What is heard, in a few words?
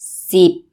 ten, Thai; thai numbers